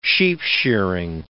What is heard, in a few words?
"sheepshearing", English